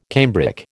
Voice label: "cambric", American